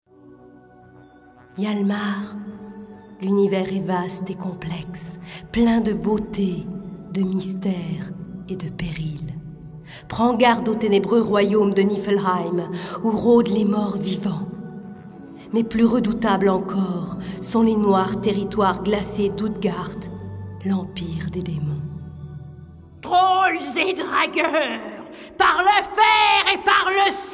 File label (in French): Reine sorcière Jeux vidéo